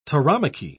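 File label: "tauromachy", British English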